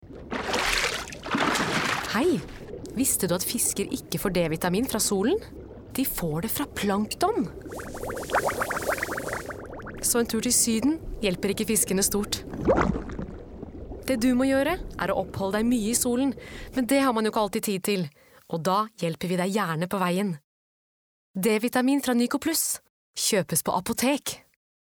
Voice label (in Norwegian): Radio; Nycoplus; Fisk